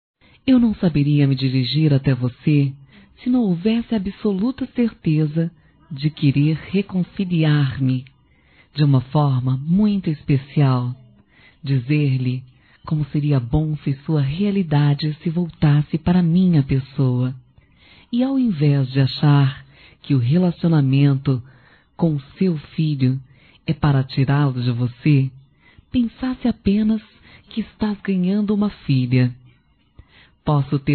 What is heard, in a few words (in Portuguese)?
Reconciliação Romântica Feminino